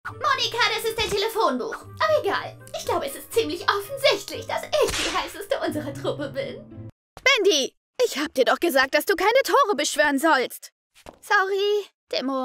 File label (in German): Demo Animiert